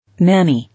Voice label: "Nanny", English

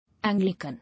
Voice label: "Anglican", English

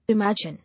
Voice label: "Imagine", English

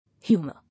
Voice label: "Humour", English

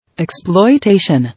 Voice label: "Exploitation", English

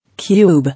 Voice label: "Cube" English

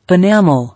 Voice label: "Enamel", English

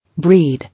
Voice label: "Breed", English

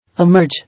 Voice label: "Emerge", English

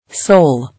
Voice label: "Sole", English

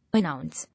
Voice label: "Announce", English

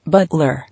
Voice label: "Butler", English

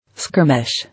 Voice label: "Skirmish", English

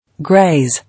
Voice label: "Graze", English

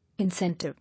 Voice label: "Incentive", English

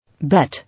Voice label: "Bet", English

